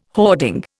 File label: "hoarding", Indian